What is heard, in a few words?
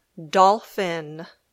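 "dolphin", English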